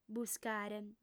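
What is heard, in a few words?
"buscare", lingua sarda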